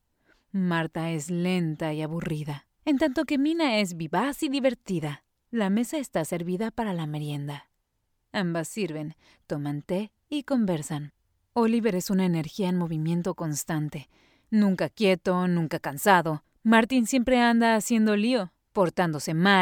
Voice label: spanish latin american narration